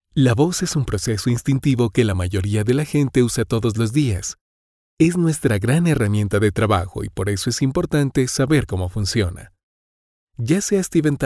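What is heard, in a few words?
Spanish Latin America male voice over, narrative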